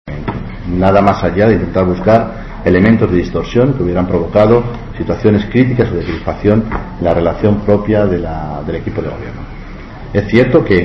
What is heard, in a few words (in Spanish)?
noticias